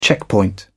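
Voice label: "checkpoint", British English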